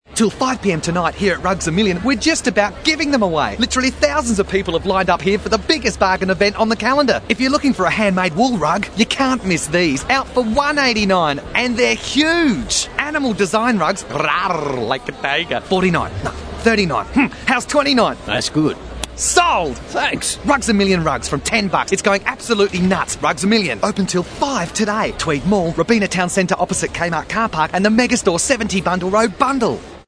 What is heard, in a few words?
Straight read